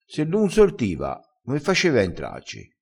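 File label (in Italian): "lu", crespinese dialettale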